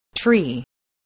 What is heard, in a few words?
"tree", voice